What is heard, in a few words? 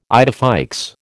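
"idée fixe", American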